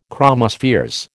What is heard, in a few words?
"chromospheres", American